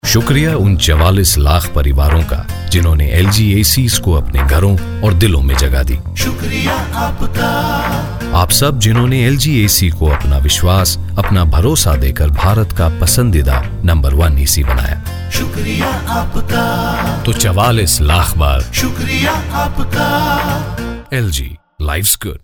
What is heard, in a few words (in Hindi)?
promo hindi